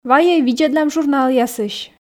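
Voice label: "вайӧй видзӧдлам журналъясысь", Komi, female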